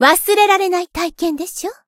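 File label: win, Japanese; sherinu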